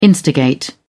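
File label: "instigate", British English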